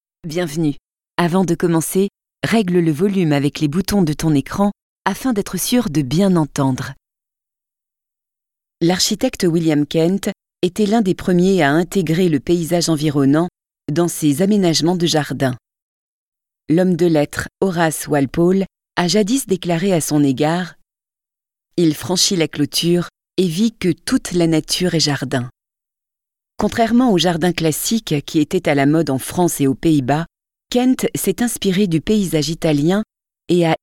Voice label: voix off english garden museum audioguide, comedienne